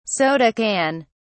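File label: "soda can", British English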